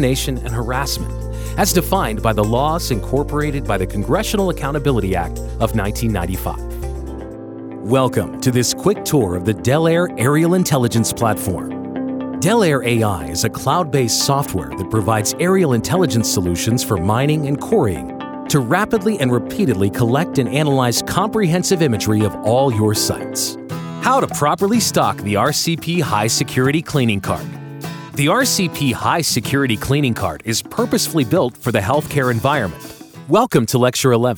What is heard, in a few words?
E Learning Training